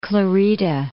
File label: "Santa Clarita", English